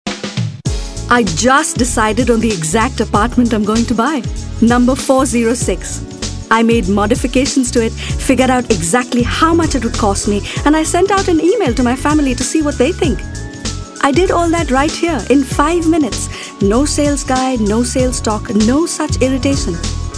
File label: Indian English TVC, Female